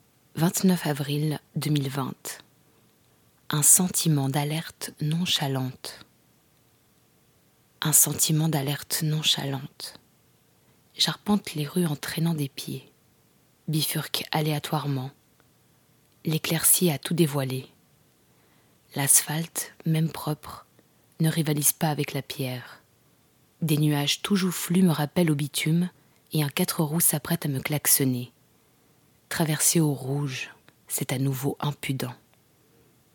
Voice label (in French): voix intérieure